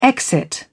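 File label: "exit", British English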